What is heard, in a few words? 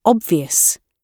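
"obvious", British English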